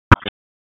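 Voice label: Sheriff's Office Dispatch; WSO DISP 1; wake; p25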